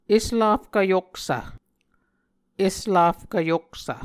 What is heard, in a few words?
"eslafkv yoksv", Muscogee